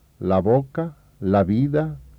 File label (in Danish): spansk la boca, spansk [la ˈβoka – la ˈβiða]